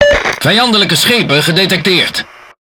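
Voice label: Speech Detection Enemy Any Ship, Dutch; World Of Warships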